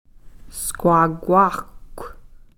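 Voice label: "robin", Takelma word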